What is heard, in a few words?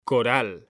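"CORAL", Spanish; mekanta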